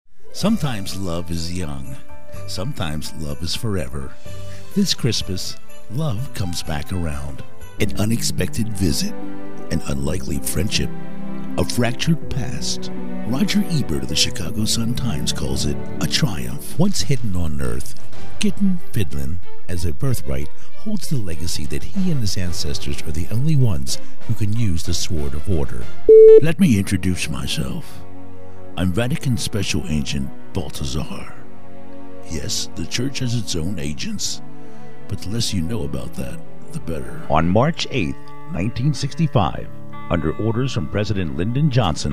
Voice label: film documentary